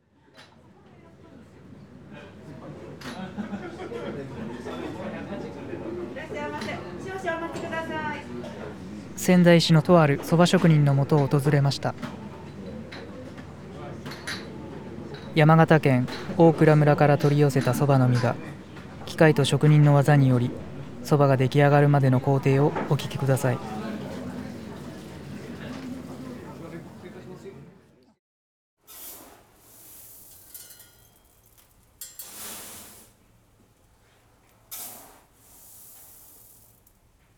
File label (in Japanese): 蕎麦打ち職人ナレーション入り